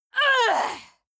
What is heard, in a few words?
Female; Skyrim Special Edition; Dawnguard Beleval